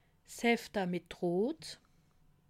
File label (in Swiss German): "hefte", alsace